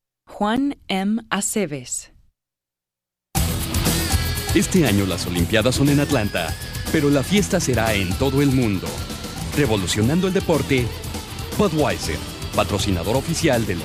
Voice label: Spanish; Commercial Demo; Voiceover